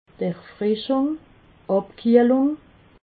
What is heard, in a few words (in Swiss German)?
"erfrischung", alsace